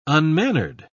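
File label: "unmannered", English, British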